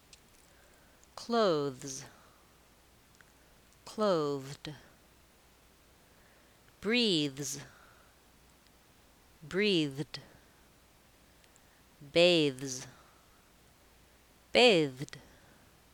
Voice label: final voiced th consonant clusters

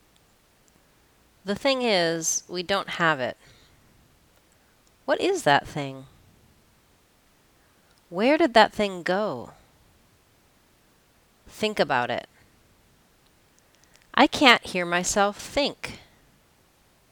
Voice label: voiceless th phrases

